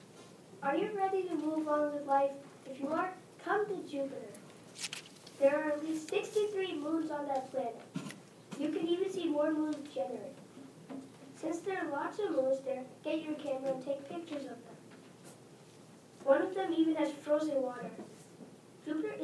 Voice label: commercial